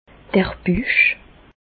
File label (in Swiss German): "buch", Bas Rhin; medecine; abdomen